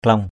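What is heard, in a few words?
"klaong", Cham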